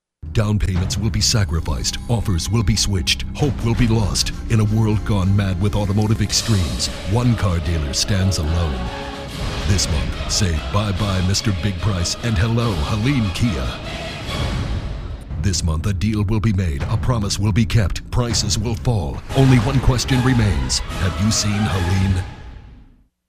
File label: Movie Trailer